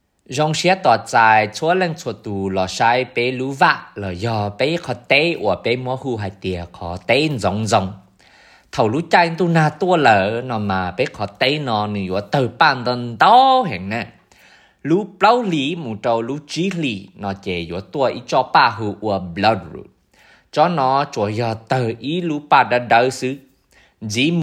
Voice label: Spring Shade Hmong